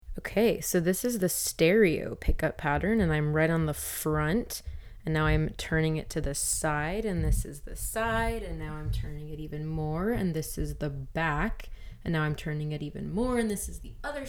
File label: Stereo; Hyper X Quadcast S